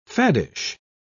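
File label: "faddish", British English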